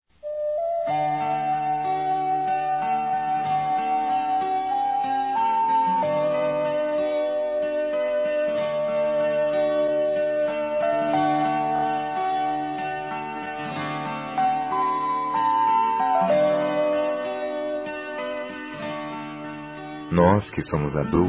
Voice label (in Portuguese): MENS P CRIANÇA M, masc; Telemensagens; Telemensagens 2017